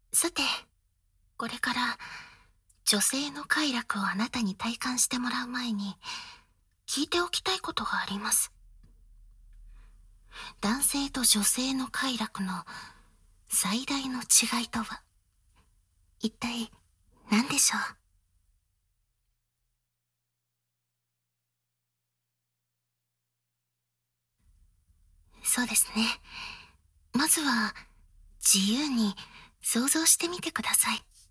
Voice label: 睾丸リンパマッサージ 思考誘導 催眠; ASMR; メスイキッ!! 女性の性感体験セット。

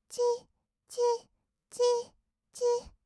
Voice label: ちっちっ, kawaii voice; ASMR; 合いの手; 1200 kawaii voice!!

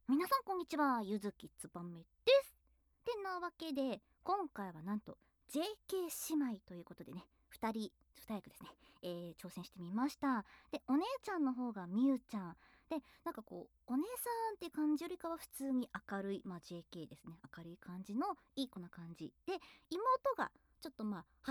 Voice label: JK姉妹フリートーク, ASMR

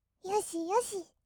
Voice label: "よしよし", kawaii voice; ASMR; 色々なワンショット

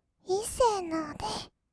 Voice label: "いっせーのーで", kawaii voice; 音ゲー

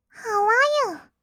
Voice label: ハウアーユー？, kawaii voice; ASMR; 挨拶; 1200 kawaii voice!!

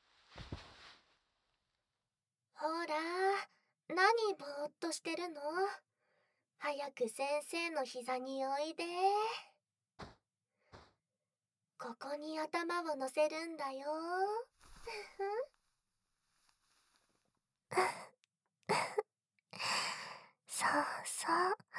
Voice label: 頭を撫でられながら耳元で癒やしの囁き（両耳）; 部屋でみずはの膝枕。

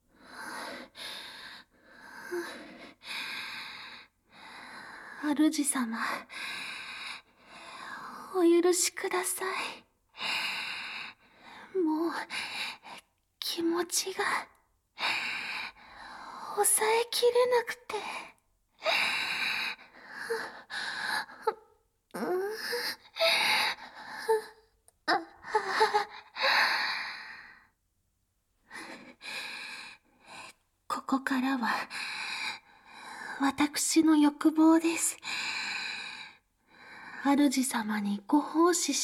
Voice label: 甘々和服メイドさん ボイスのみ, ASMR

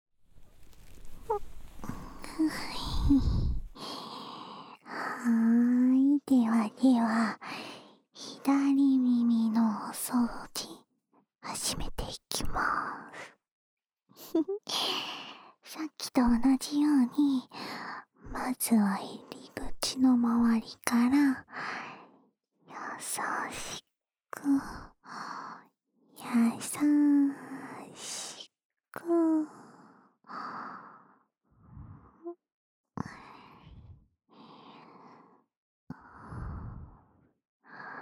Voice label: 左耳の耳かき, ASMR; お兄さんの気持ちよさそうな顔、かわいー♪

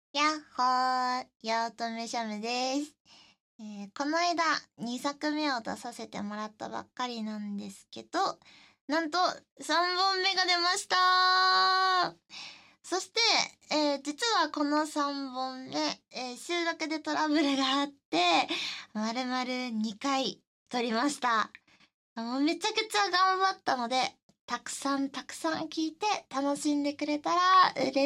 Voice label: フリートーク

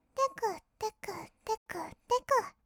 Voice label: てくてく, kawaii voice; ASMR; 合いの手; 1200 kawaii voice!!

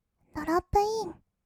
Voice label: "ドロップイン", kawaii voice; 音ゲー

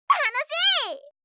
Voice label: 楽しい, 相づち・反応系【ポジティブ】

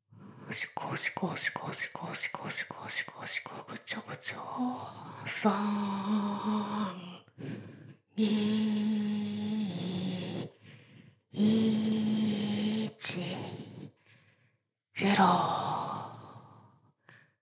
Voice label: ダウナー風俗 カウント

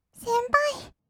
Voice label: 先輩, kawaii voice; ASMR; 二人称

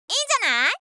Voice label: "いいんじゃない", 相づち・反応系【ポジティブ】

